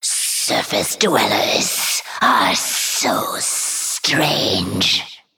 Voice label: Female Naga; Darkscale; Emote Response